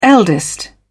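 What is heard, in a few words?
"eldest", British English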